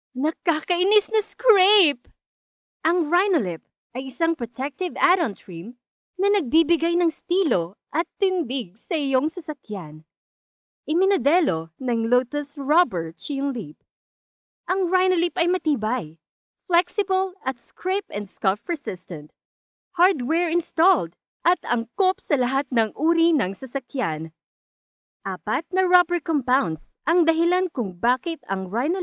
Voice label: Tagalog